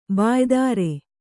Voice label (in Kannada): "ಬಾಯೊದರು", Kannada